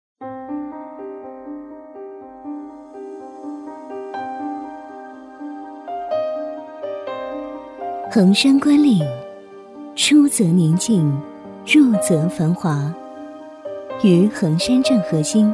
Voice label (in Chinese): 女 房地产广告 温暖柔和; 横山观岭; 温柔知性